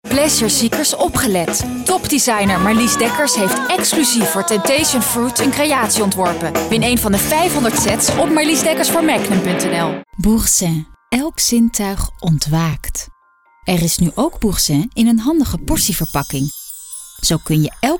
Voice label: audioreel commercial